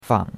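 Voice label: "fang", Chinese, third tone; Cours-de-Chinois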